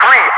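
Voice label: "3", English speech, Wingman; loud; DCS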